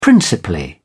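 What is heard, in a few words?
"principally", British English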